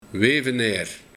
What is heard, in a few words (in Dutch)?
"Weveneir", Weduwnaar